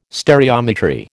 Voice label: "stereometry", American